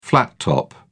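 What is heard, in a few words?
"flattop", British English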